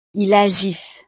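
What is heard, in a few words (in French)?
"ils agissent", French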